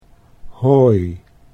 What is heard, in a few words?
"hooi", Dutch